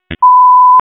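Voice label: Police District Dispatch, p25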